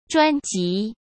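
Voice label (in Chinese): "专辑", Mandarin Chinese; chinese flashcards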